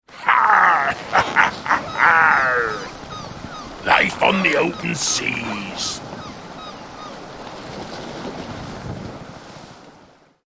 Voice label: Pirate At Sea, Pirate character with sounds of the sea and seagulls.; Recorded Voice